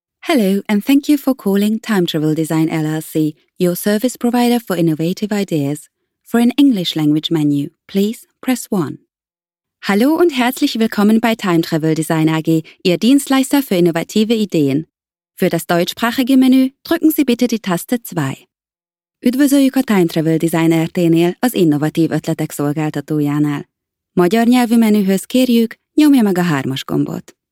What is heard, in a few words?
IVR 3 languages